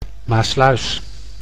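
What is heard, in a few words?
"Maassluis", Dutch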